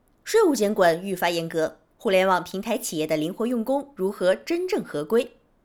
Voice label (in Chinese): 宣传